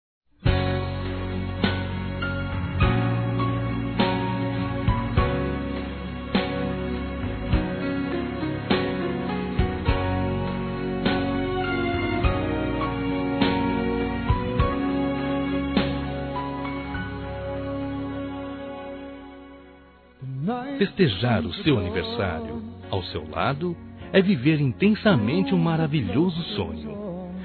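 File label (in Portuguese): Romantica Masculino; Aniversários; ANIVERSARIO romantico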